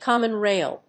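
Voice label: "common-rail", English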